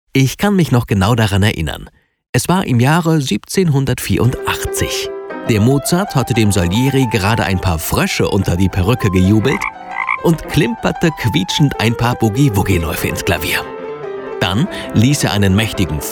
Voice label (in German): sprecher audioguide; mozart